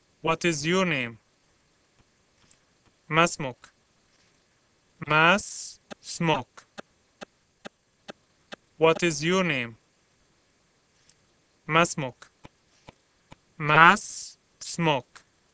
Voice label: Arabic Name; Mas-Smukマ・スムカ?; アラビア語; お名前は?